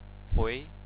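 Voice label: "hui", Chinese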